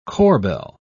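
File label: "corbeil", British English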